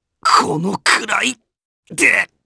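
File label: Vox Dead, Japanese; kingsraid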